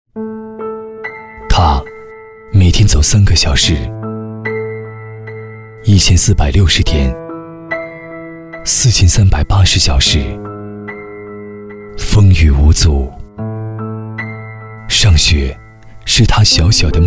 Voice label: 男, 专题, 公益; 三星

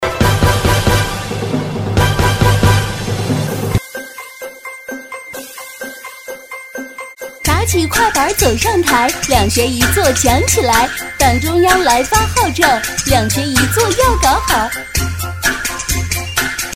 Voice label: 女 模仿 快板说唱

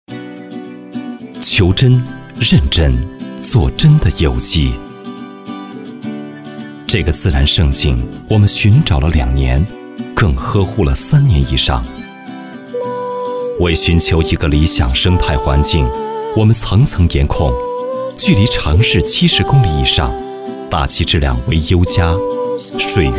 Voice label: 男, 专题; 有机专题

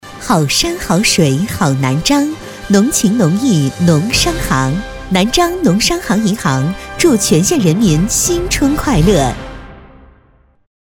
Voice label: 女 广告 农商银行祝福词 新春祝福词

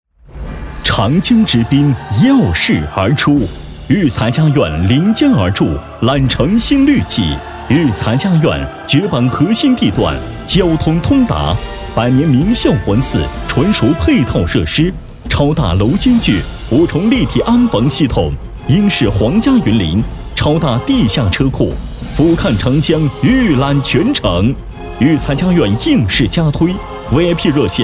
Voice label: 男 广告 房产

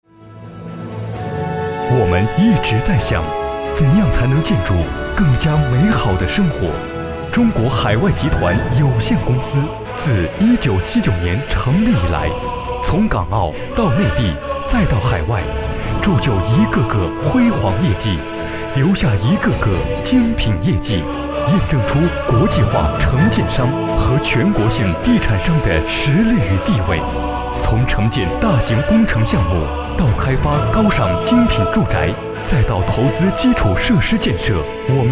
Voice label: 男, 专题, 深情叙述

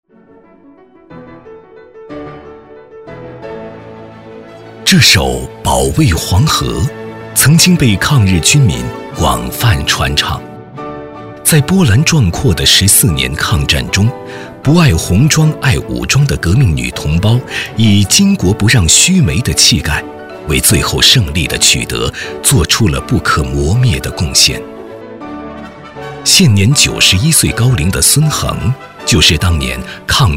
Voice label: 男 专题; 保卫黄河

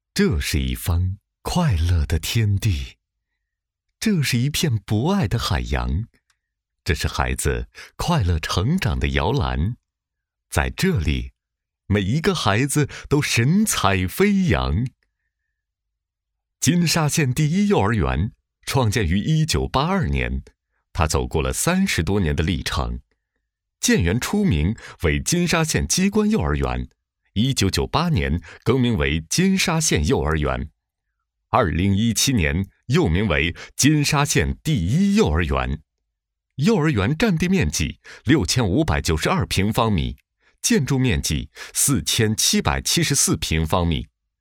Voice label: 男 专题 幼儿园; 金沙县第一幼儿园

